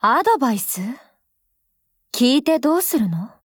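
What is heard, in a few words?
DIALOGUE, Japanese; Vector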